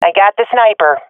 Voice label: i killed sniper, swat fem; vo; Counter Strike Model Pack; CS:GO